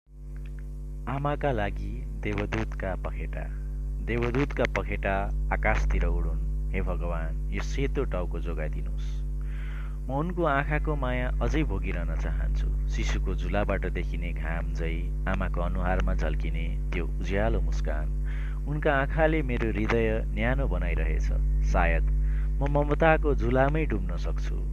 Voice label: nepali

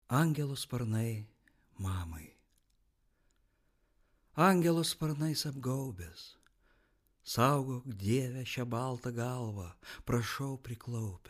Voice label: lithuanian; Lietuvių (LT)

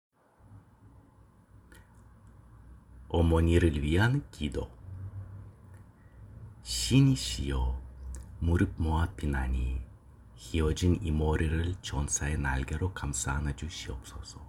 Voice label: korean; Korejiečių (KOR)

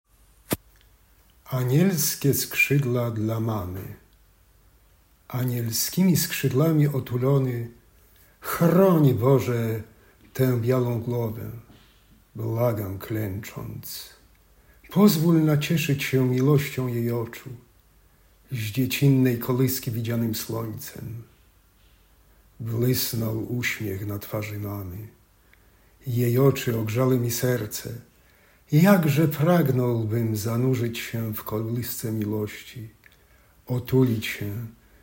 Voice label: polish

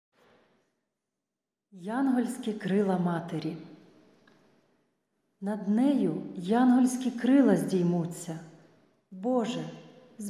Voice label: ukrainian

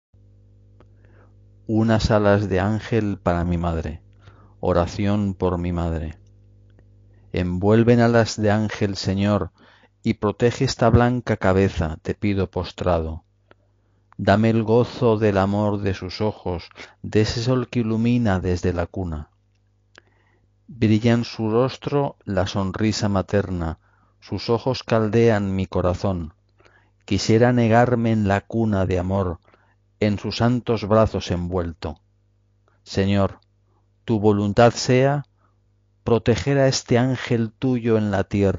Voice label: spanish; Ispanų (ES)

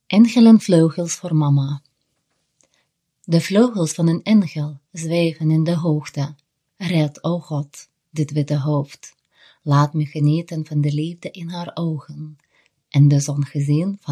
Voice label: dutch; Nyderlandų (NL)

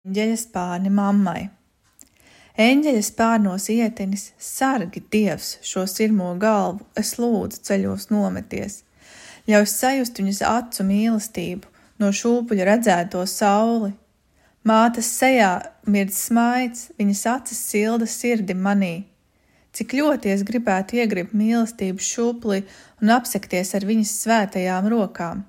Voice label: latvian

